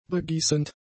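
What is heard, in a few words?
"begießend", German; adjectives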